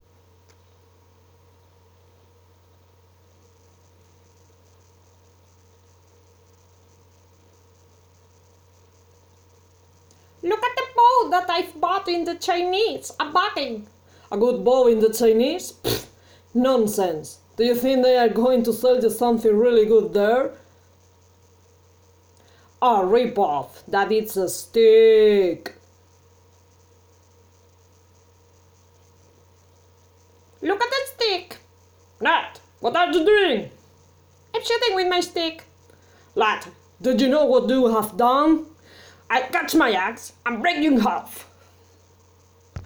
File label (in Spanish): doblaje inglés